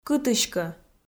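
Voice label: "кытыськӧ", Komi-Zyrian, female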